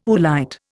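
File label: "oolite", Indian English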